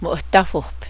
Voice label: "coffee", Cheyenne language

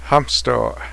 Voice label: sit down plural, cheyenne language commands

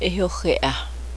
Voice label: upright, cheyenne language

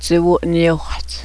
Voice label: bay horse, cheyenne

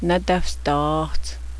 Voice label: i have a headache, Cheyenne

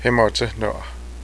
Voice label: stud, cheyenne

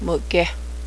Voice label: shoe, cheyenne

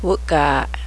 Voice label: antelope, cheyenne language

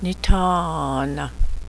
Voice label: lets pray, Cheyenne language; Nétâ-háoenama!

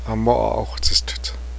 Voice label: bicycle, Cheyenne language

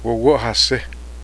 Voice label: pinto, cheyenne

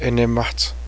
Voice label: crooked mouth, Cheyenne

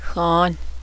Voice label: skunks, cheyenne language

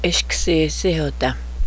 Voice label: pig, cheyenne language

